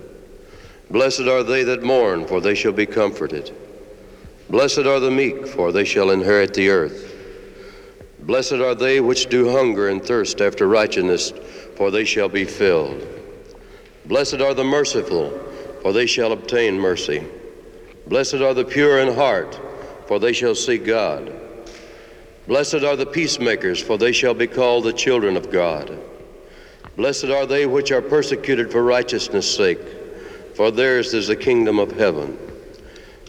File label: Beautiful Words (Narrative)